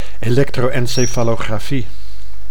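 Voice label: "electro-encefalo-grafie", Dutch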